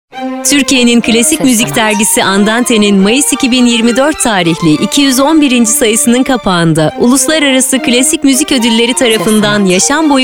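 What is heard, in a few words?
Turkish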